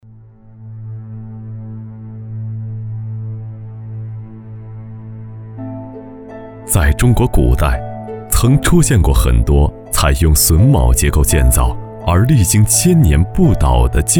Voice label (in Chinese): 男 纪录片 榫卯加音乐